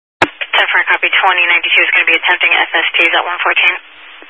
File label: Highway Patrol, Phoenix Metro East Area, scanner